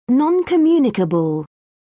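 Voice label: "non communicable", British English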